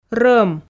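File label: "рӧм", Komi, female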